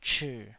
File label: "chii3", taiwanese